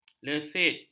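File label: "lesit", Malay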